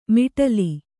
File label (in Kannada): "ಮೊಟಲೆ", Kannada